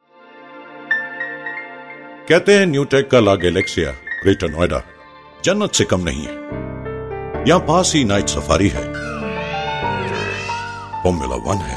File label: promo hindi